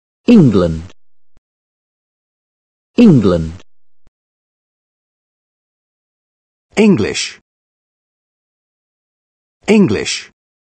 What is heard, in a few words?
England English